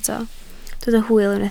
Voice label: "hwyl", Welsh

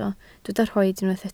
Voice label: "rhoid", Welsh

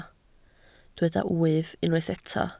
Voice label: "wyf", Welsh

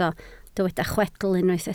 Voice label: "chwedl", Welsh

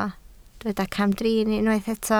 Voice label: "camdrin", Welsh

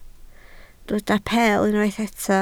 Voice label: "peel", Welsh

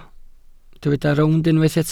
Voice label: "rownd", Welsh

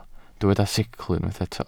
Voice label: "sicl", welsh

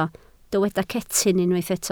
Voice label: "cetyn", Welsh

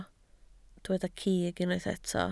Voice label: "cig", Welsh

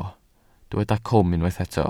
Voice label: "cwm", Welsh

